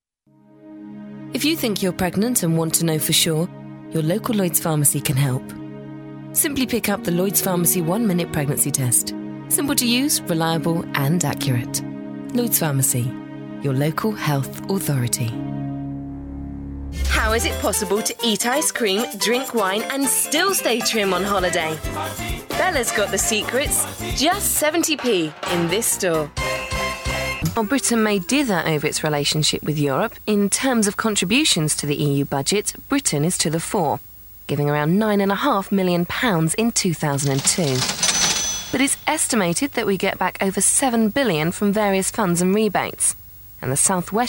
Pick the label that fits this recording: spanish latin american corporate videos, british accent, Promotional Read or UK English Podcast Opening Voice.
british accent